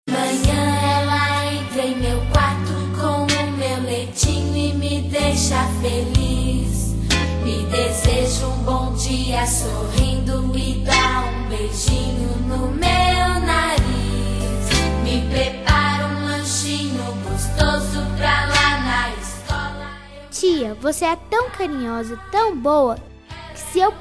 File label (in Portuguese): DIA DAS MAES PARA TIA, Homenagem as Maes infantil, telemensagem